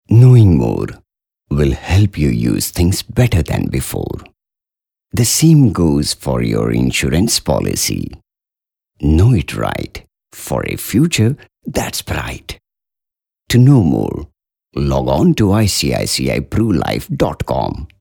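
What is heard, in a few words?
Hindi English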